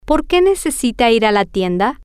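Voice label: why Does He Need To Go To The Store, spanish, female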